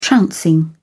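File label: "trouncing", British English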